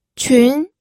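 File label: "qun", Mandarin Chinese, second tone; pinyin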